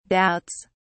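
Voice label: "doubts", British English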